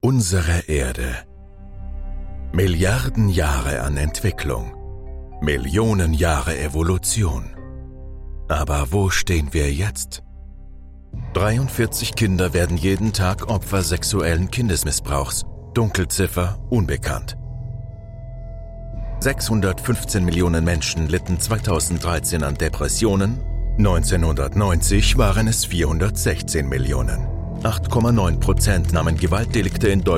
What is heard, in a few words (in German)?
Doku